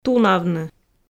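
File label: "тунавны", Komi, female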